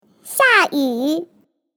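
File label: "rain", Manx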